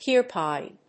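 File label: "chirpine", English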